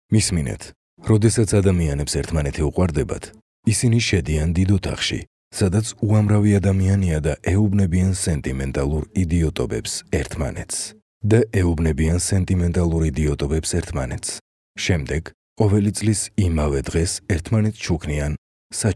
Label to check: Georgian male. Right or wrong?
Right